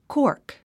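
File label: "cork", 英単語 発音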